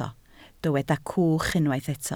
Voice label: "cwch", Welsh

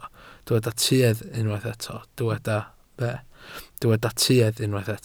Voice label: "tuedd", Welsh

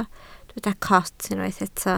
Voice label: "coot", Welsh

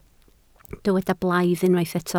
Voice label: "blaidd", Welsh

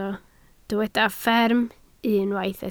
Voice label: "fferm", Welsh

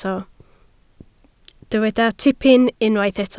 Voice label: "tipyn", Welsh

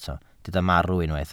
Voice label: "marw", welsh

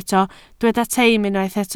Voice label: "teim", Welsh

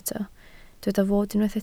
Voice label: "fod", Welsh

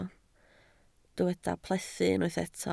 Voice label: "plethu", Welsh

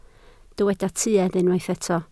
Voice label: "tuedd", Welsh

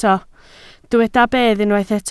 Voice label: "bedd", Welsh

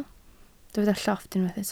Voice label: "llofft", Welsh

